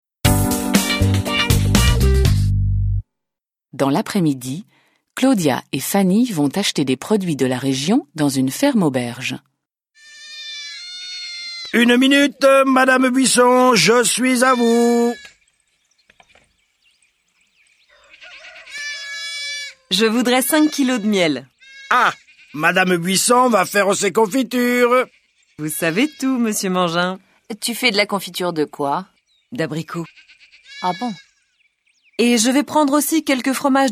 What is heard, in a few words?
French